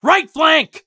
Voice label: flank right, voice; Escape from Tarkov